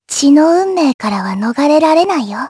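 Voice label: vox dia Japanese; kingsraid; jp; Lewsia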